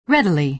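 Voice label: "readily", American English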